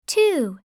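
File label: "two", English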